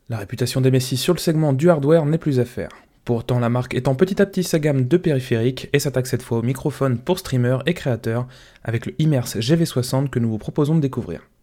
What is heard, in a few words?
msi immerse gv60 soundtest base